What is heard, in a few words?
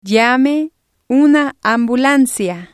"llame una ambulancia", spanish